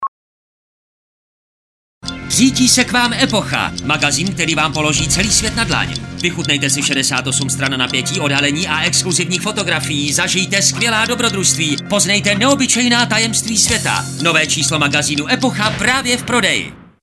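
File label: Male Czech commercial mix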